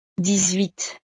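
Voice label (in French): "dix huit", French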